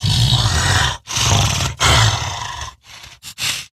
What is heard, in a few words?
Idle Grineer Wolf, en; Battle Barks